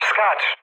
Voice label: bailout mood med, speech pol; War Thunder